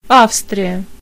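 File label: austria, Swedish; gcompris-data; geography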